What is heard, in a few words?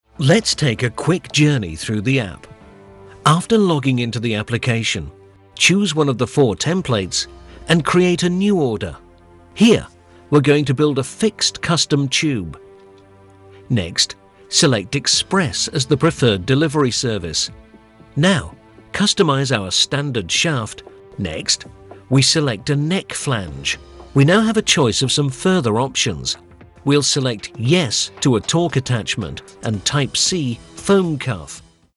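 British corporate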